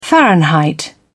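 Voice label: "fahrenheit", British English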